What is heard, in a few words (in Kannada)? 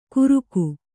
"ಘುರುಕು", Kannada